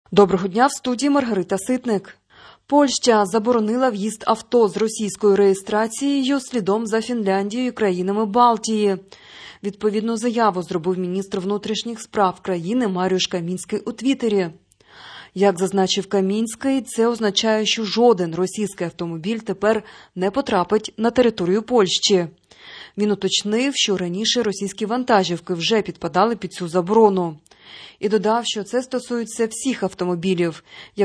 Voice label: Ukrainian Morning News